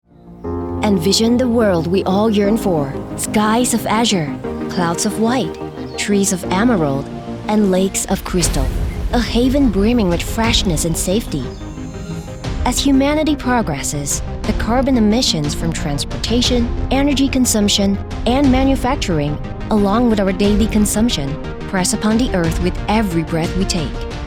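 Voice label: ENGLISH - COMMERCIAL Passionate, Strong, Inspiring; ENGLISH - COMMERCIAL (EcoWorld) Passionate, Strong, Inspiring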